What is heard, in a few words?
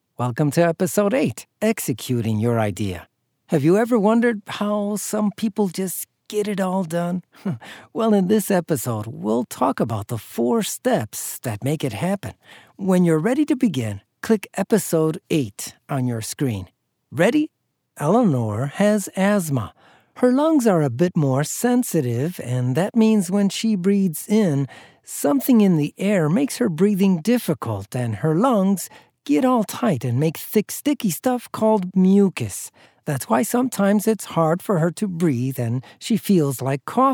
e Learning